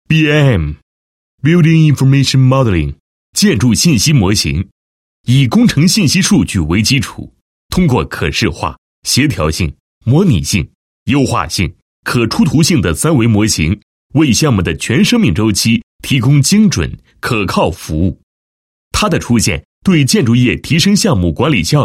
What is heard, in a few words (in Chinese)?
男 工程 配音; BIM在铁路工程的应用